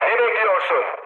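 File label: attack position, mood med, Turkish; War Thunder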